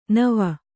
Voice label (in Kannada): "ನೆವ", Kannada